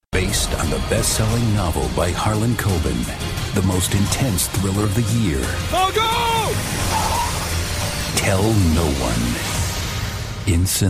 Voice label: trailers